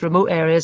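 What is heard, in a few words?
"remote areas", Scottish English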